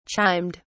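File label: "chimed", English, British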